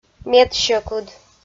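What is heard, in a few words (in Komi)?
"медсьӧкыд", Komi, female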